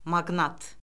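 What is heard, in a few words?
"maqnat", Azerbaijani; Orfoqrafiya Lüğəti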